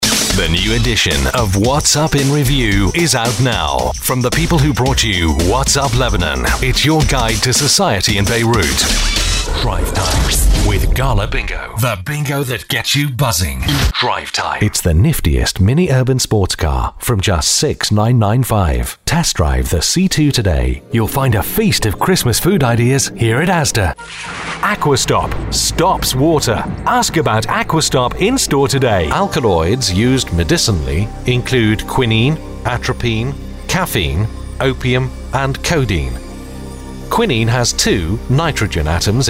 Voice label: british accent